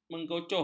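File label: "menggocoh", Malay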